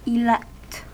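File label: "elect", US English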